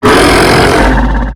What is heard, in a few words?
Octopede Pain; Warframe; MiTW; Battle Barks